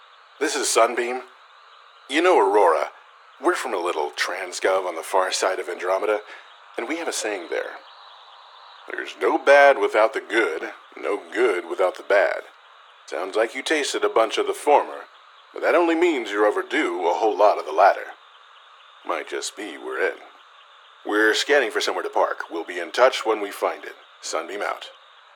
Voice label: Radio Event; Sunbeam